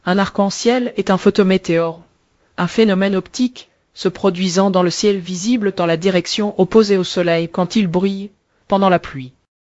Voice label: speaker, fr FR; piper voices